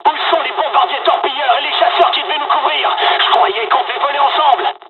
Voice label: dialog, dialogs french; War Thunder; midway